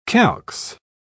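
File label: "calx", British English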